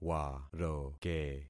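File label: "waroke", concatenated syllables; Affixing experiment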